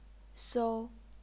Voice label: "sou1", chinese pinyin